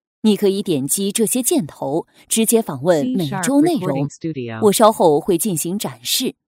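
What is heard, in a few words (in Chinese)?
Chinese Mandarin Female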